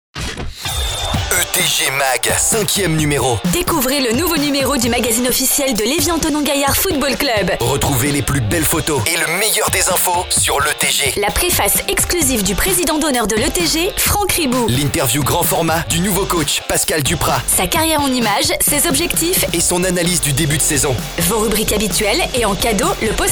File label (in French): spot radio